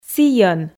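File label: "сійӧн", Komi, female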